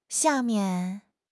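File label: "xia4 mian4", Chinese; HSK1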